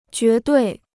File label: "绝对", Chinese